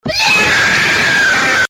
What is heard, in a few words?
russian minecraft kid